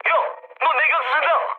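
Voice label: Korean damaged water mood med, speech; War Thunder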